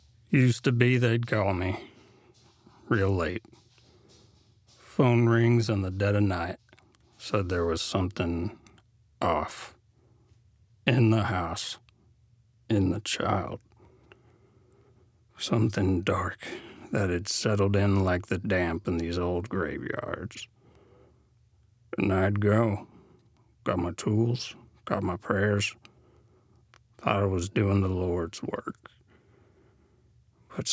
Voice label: Southern VO